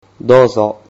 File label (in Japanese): "douzo", Japanese